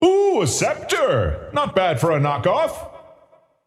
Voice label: scepter announce in game; dota